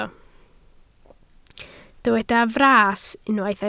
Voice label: "fras", Welsh

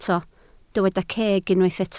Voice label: "ceg", Welsh

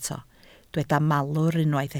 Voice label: "malwr", Welsh

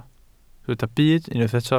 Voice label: "byd", Welsh

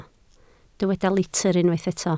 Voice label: "litr", Welsh

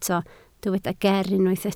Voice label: "ger", Welsh

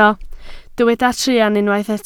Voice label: "truan", Welsh

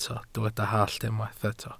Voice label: "hallt", Welsh

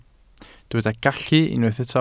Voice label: "gallu", Welsh

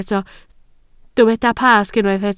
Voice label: "Pasg", Welsh

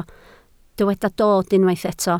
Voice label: "dod", Welsh

